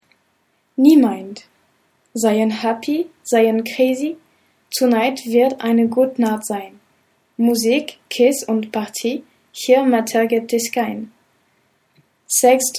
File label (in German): Deutsch Gedicht